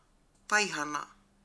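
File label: "paihana", Māori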